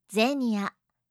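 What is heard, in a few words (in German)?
"zenia", japanische Mädchennamen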